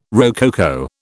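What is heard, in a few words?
"rococo", British English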